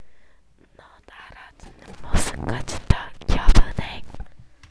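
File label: "gentle", spoken word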